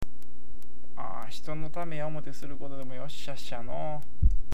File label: "yossyashi", awaji ben